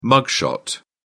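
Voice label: "mugshot", British English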